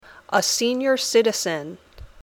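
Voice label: "a senior citizen", English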